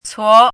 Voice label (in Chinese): "cuo2", 汉字语音; chinese-voice